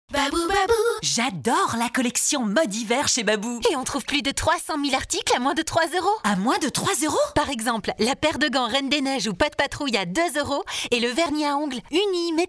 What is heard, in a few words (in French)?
Pub radio Mode hiver; BABOU